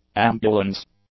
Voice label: "ambulance", English; nouns